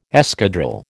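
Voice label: "escadrille", American English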